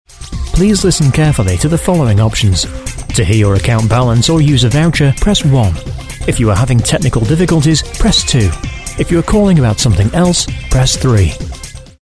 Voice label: on hold